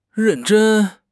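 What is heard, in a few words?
"ren4 zhen1", Chinese; HSK; HSK3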